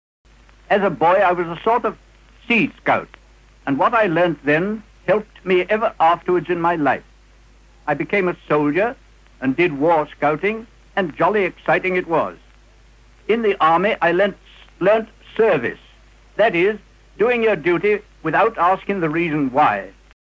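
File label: rp, 19th century rp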